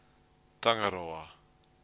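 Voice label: "tangaroa", Māori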